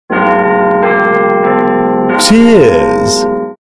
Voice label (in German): prost auf englisch